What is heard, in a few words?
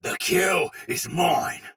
Attack Takeover Soldier Sword Agent Male, Battle; Dialog; Barks; Melee; Sinecure